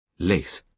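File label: "lace", British English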